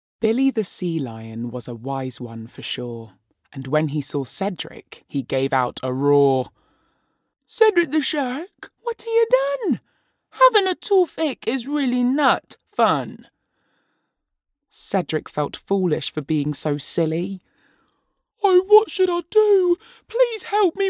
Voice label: Audiobook